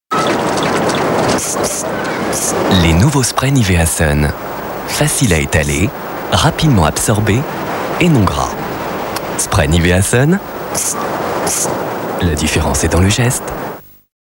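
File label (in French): naturel, comédien voix off homme; Nivea sun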